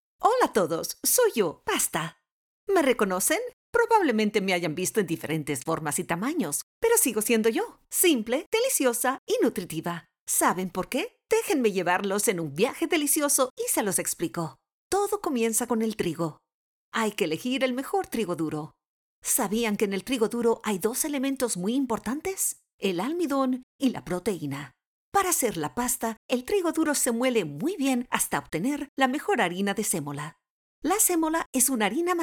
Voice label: spanish latin american narration